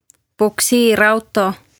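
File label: "Puksiirauto" Estonian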